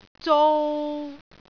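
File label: "zou3", Cantonese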